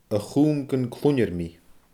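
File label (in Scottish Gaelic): "a chum gun cluinnear mi", Scottish Gaelic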